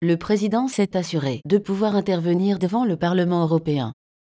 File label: nphones synth